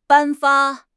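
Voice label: "颁发", Chinese; HSK6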